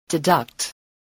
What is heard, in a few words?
"deduct", English